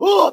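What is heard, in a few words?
"huh", vocal sample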